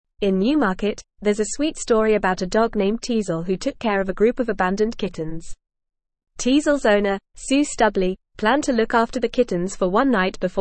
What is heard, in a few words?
English Upper Intermediate FAST Reading; Dog Becomes Surrogate Mother to Abandoned Kittens; English Newsroom